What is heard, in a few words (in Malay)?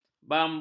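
"bambu", Malay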